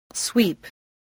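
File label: "sweep", English word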